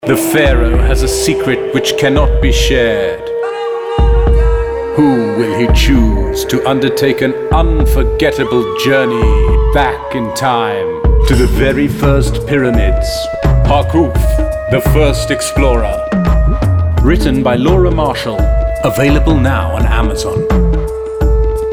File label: advert